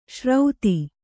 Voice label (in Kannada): "ಶ್ರೌತಿ", Kannada